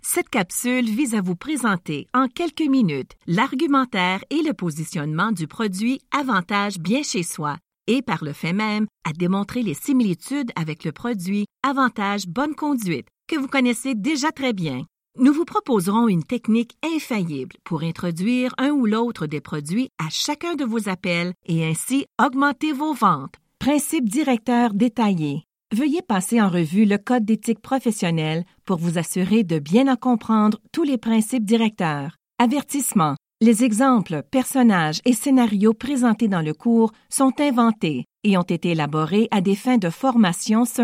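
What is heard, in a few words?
French Canadian, female, eLearning; voice over; accent of canada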